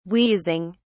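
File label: "wheezing", British English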